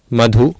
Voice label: "madhu", Sanskrit